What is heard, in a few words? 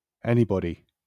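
"anybody", British English; pronunciations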